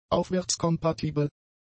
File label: "aufwärtskompatibel", German; adjectives